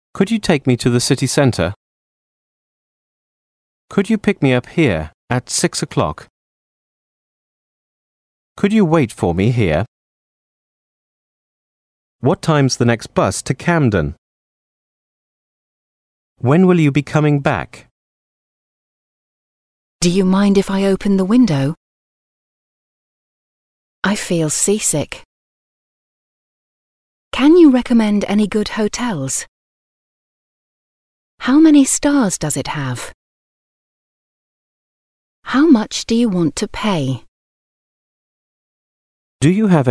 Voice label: English Sentences